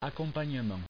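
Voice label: "accompagnement", French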